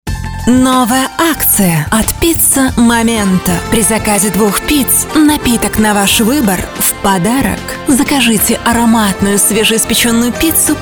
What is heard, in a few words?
Russian Commercial soft